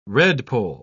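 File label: "redpoll", English, British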